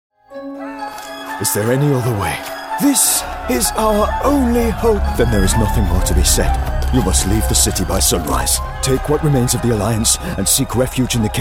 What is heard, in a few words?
english british video games